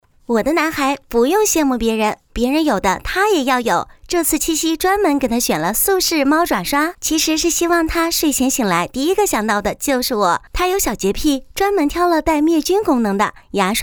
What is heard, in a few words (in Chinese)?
甜美版